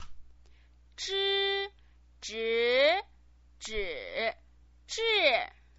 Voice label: "zhi", pinyin

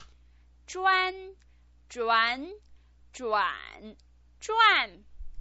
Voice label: "zhuan", pinyin

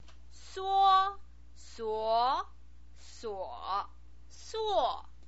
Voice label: "suo", pinyin

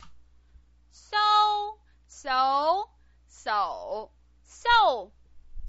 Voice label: "sou", pinyin

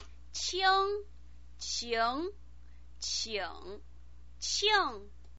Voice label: "qing", pinyin

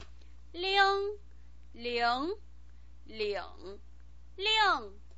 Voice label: "ling", pinyin

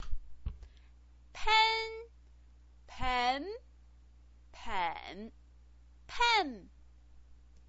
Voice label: "pen", pinyin

